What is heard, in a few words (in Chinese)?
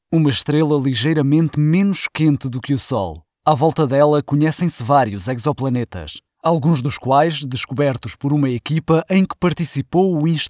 葡萄牙语 男声; 外籍